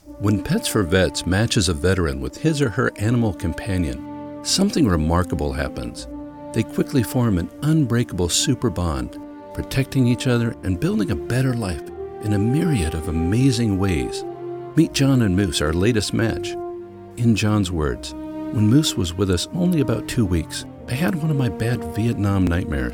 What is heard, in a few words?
Commercial; Pets for Vets